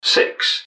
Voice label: "six", english man; subway announcers; MetrostroiAddon